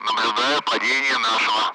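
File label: Speech, Russian; Allied Flight; member down; DCS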